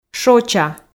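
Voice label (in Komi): "шоча", female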